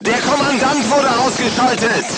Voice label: voice message commander contused, crew tanks german